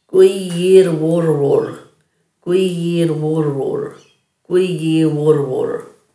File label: "gwiyirrwurrwurr", Dhurga